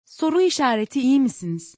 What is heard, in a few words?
Are you okay in turkish